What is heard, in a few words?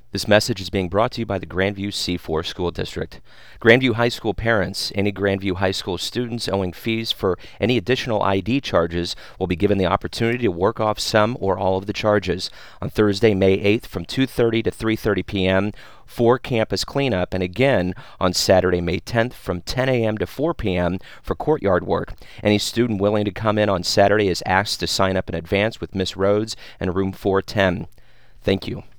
fees announcement